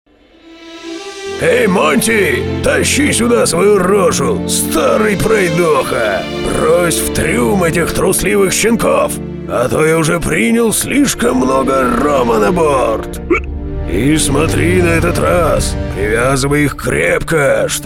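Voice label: Parody Pirate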